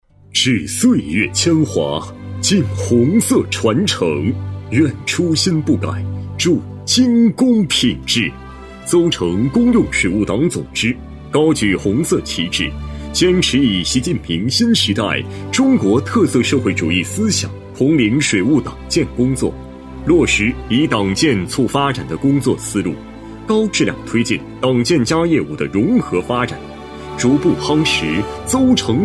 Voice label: 男 党政宣传片; 大气浑厚; 水务

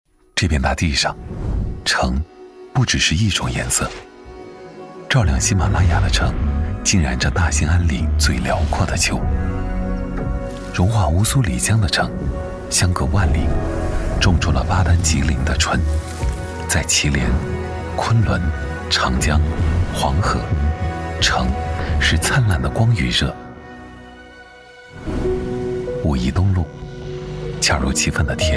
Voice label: 男 【积极讲述品质】 广告, 磁性沉稳 高端大气

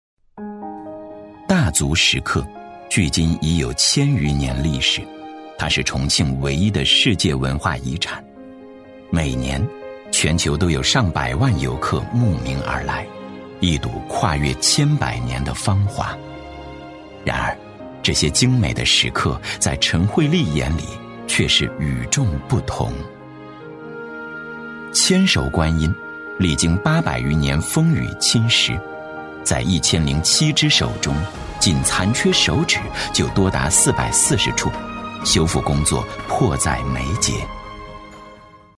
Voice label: 男 人物宣传片 唯美讲解; 男83-磁性低沉 舒缓抒情

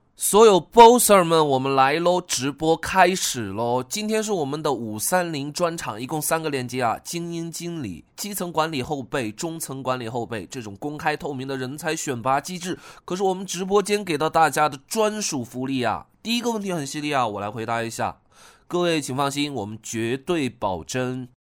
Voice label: 男 角色 人物模仿; 质感大气 自然诉说; 李佳琪

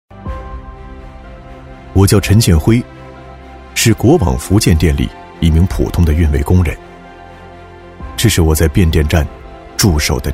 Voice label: 男, 人物自述, 低沉自然讲述, 大气主流 自然诉说; 电网工人

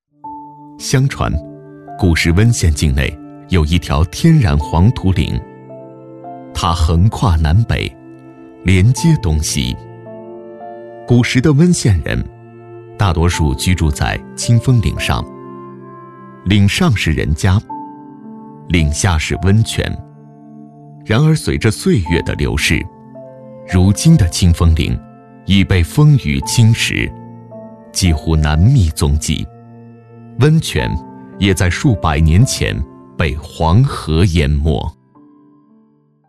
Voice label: 男-纪录片-低沉磁性讲述, 磁性大气 大气浑厚; 温县

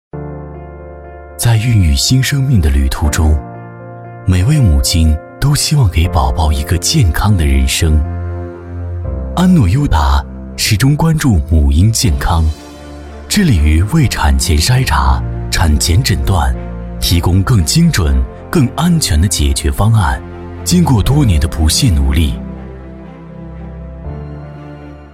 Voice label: 男 走心旁白 母婴健康 磁性 品质; 男B44-明亮硬朗 高端大气

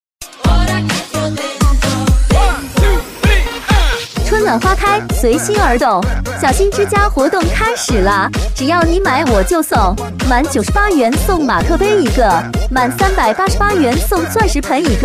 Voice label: 甜美活泼女 超市促销; 激情力度; 小新之家

